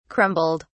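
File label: "crumbled", British English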